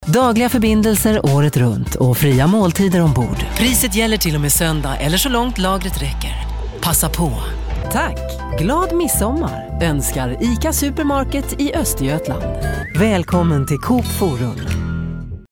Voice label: SWEDISH Female

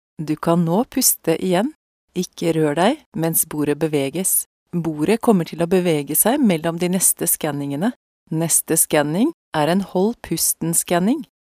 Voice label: Norwegian Female

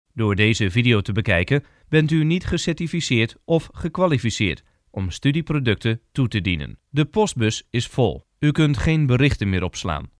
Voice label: Dutch Male

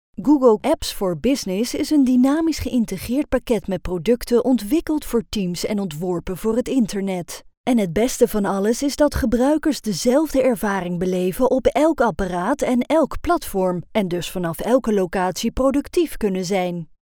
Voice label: DUTCH Female

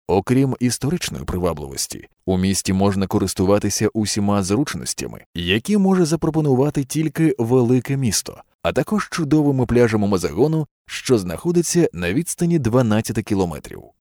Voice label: UKRAINIAN Male

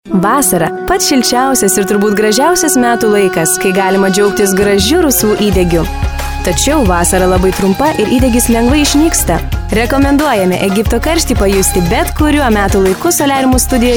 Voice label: Lithuanian Female

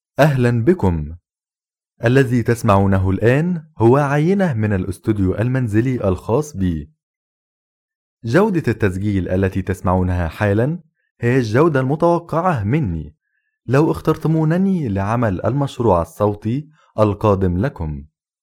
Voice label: ARABIC (Egypt) Male

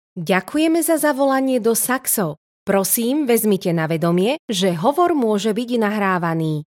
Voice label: Slovak Female

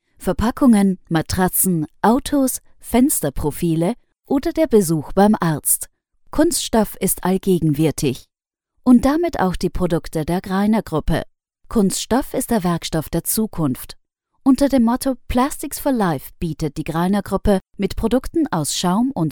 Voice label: German (Austria) Female Normal

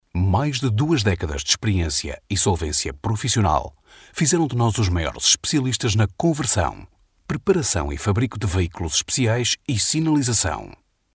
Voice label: Portuguese Male

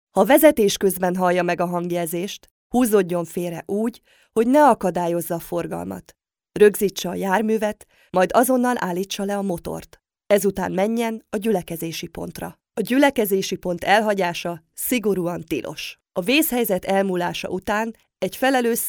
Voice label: Hungarian Female; ImageFilm

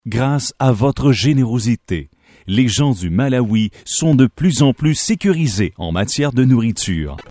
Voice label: French, Male

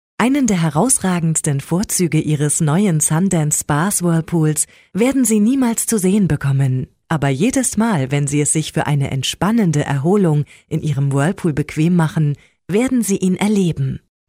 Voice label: German Female

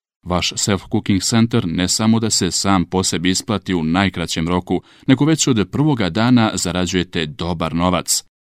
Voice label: Serbian Male (BH)

